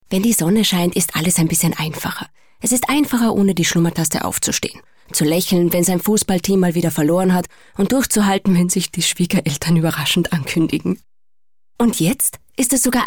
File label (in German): Werbung DE